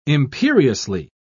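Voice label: "imperiously", British English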